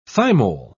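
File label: "thymol", British English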